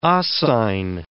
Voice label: "oscine", English

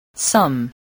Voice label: "some", English voice

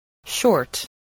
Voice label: "short", spelling

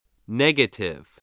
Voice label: "negative", voice

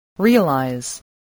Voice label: "realize", english

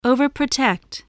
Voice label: "overprotect", English voice

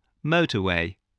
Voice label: "motorway", voice, spelling

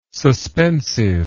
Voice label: "suspensive", English word

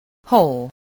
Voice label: "whole", voice, english

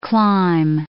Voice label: "climb", English

